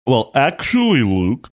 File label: saying well actually funny